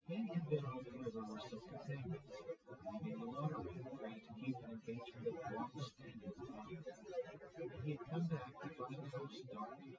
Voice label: test male synthconv 130ms 1m src; SiSEC08; SiSEC underdetermined